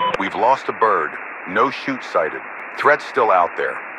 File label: Radio command Friendly Down